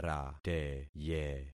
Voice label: "rateye", concat syllables; Affixing experiment